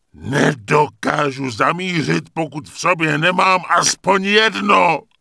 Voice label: Rifleman Pissed; wowpreklad; czwow